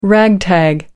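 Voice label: "ragtag", British English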